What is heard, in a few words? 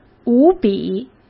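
"无比", Mandarin Chinese; hsk; chinese-flashcards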